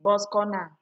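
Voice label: "bos corner", Nigerian Pidgin, female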